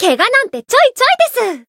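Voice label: Voice variable chant, Japanese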